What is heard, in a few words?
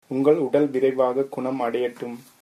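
get well soon, tamil, male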